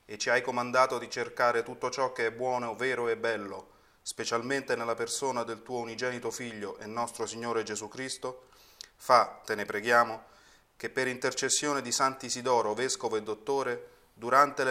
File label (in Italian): internet prayer italiano